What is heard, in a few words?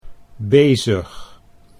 "bezig", Dutch; 'busy (with)'